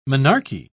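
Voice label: "menarche", British English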